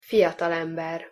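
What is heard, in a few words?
"fiatalember", Hungarian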